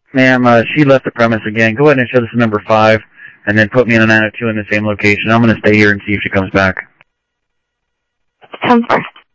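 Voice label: Sheriff West County, scanner audio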